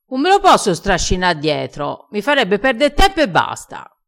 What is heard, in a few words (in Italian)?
"strascina", crespinese